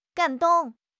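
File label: "gan3 dong4", Mandarin Chinese; HSK4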